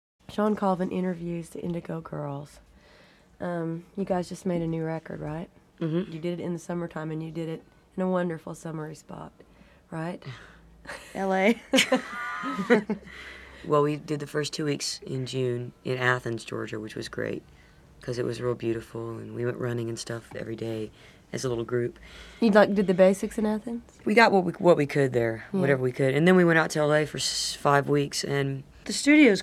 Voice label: conversation